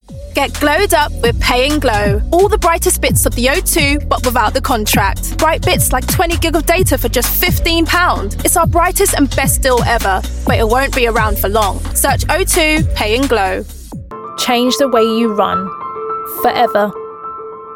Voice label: female English commercial compilation